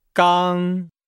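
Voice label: "gang", Chinese; Nihao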